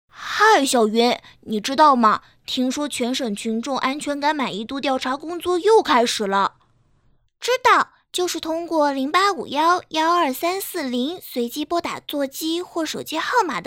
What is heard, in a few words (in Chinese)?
男童女童